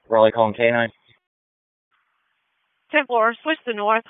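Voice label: Raleigh Police Southeast District Dispatch, p25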